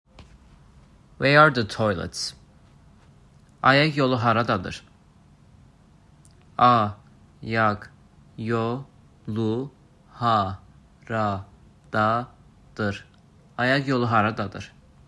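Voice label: Where are the toilets? Ayaq yolu haradadır?, azerbaijani; azerbaijani phrasebook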